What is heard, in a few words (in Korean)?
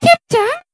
aegyo; Kaepjjang